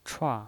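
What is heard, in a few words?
"chua", Mandarin Chinese pinyin voice, fourth tone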